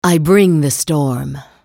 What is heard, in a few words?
attack, en US; Anivia